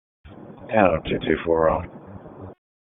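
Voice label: Police Northeast District Disp, radio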